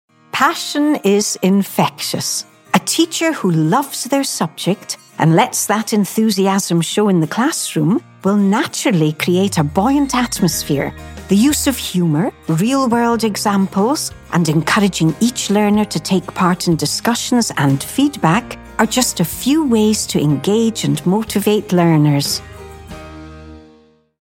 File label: mature scottish elearning